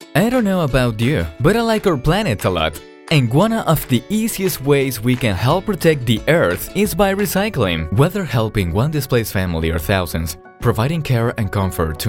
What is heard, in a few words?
English